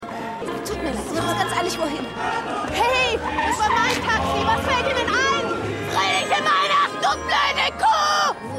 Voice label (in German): Wuetende Frau; Taxidiebin